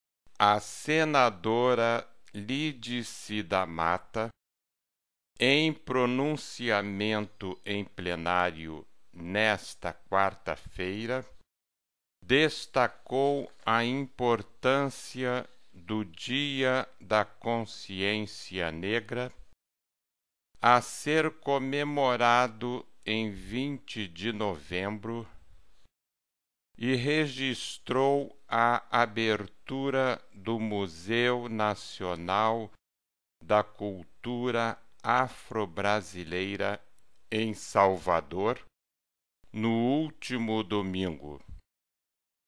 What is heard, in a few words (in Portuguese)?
em ritmo lento; a senadora lídice da mata